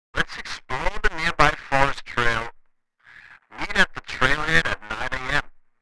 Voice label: CB radio walkie talkie conversation loud distorted